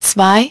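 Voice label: "two", English; iOS 4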